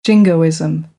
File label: "jingoism", American; English; oʊ - əʊ